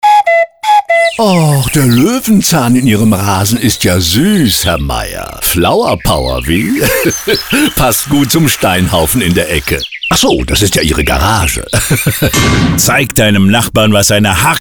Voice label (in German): Radiospot